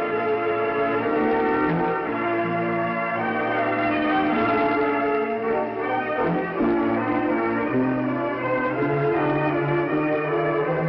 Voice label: Radio San Sebastian Huehuetenango 4800 kHz; Radio Buenas Nuevas

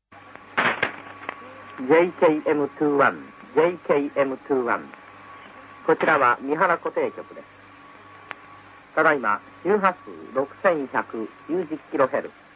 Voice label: Osaka Mihara 6190 kHz; JKM21; 300w

